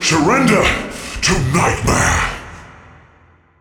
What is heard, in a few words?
Midfight Mono; NPC; Malachai Combat